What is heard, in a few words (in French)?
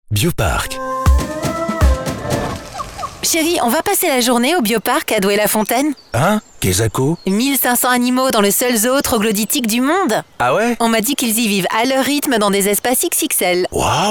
SPOT RADIO